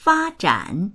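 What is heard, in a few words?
"fa1zhan3", Chinese; 发展; 発展する; dictionary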